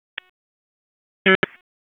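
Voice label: Police Northeast District Disp, radio; wake p25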